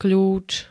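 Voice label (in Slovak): "kľúč", Slovak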